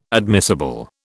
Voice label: "admissible", British